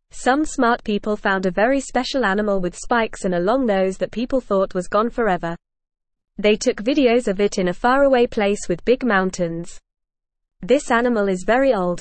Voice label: English Newsroom Beginner FAST Reading; Smart People Find Special Animals in Faraway Place